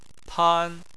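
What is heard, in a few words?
"pan" first tone, Chinese; Chinese Surnames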